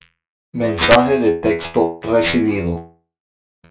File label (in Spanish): Robot Voz